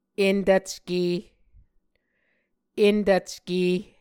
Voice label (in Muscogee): "entvcke", Muscogee